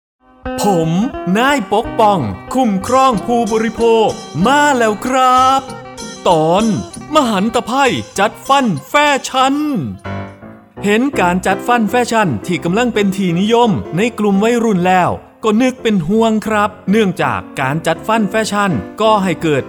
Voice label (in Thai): สปอตวิทยุ; ภาคตะวันออกเฉียงเหนือ; สื่อประชาสัมพันธ์; สคบ.; สำนักงานคณะกรรมการคุ้มครองผู้บริโภค